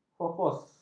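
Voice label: "fokus", Malay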